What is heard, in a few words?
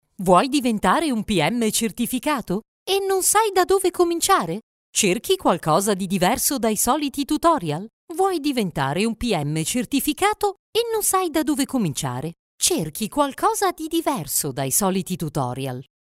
Spot